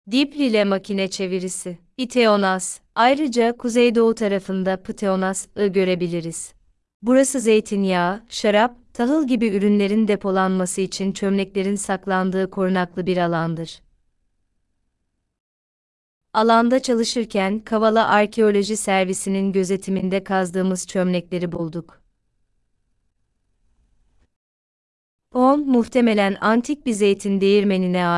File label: turkish, Sesli rehberli tur; Πιθεώνας; Pitheonas